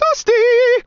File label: Tesla Taunt